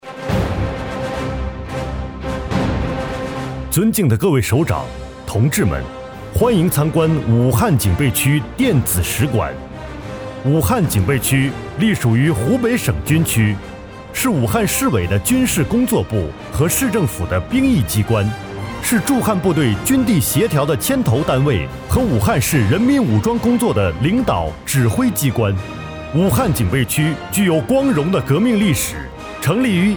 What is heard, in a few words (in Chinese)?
大气磅礴; 武汉警备区试音